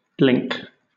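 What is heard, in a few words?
"blink", British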